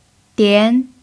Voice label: "dian2", Mandarin pinyin